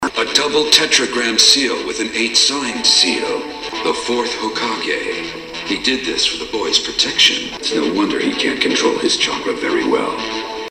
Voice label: SERIOUS; JIRIAYA; NARUTO; PERVY SAGE; animation